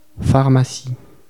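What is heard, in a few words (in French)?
"pharmacie" French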